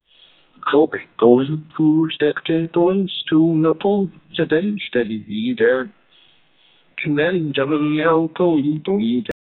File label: a 24 year old australian, advertisement